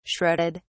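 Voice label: "shredded", British English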